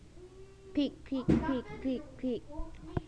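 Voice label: "pick", spoken word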